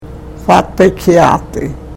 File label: karuk